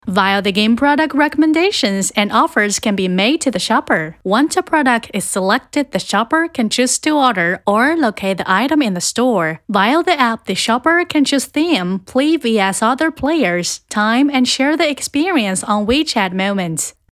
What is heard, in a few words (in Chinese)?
指示语店铺使用说明